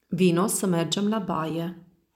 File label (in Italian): Rumeno